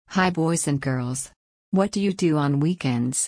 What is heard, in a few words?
fast